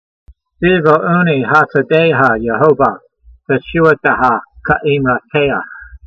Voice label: voice, hebrew language; Psalm 119:41; vee'vo'oo'nee ha'sa'dey'ha yehovah, te'shoo'a'teha ke'eemrateyha